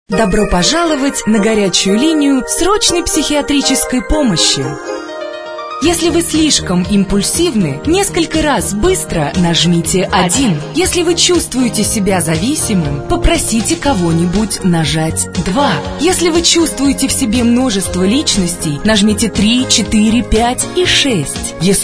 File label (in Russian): прикол автоответчик